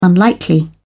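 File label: "unlikely", English, British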